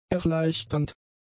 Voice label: "erleichternd", German; adjectives